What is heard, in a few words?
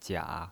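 "jia", third tone, pinyin voice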